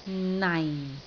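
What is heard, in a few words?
"nai4", Cantonese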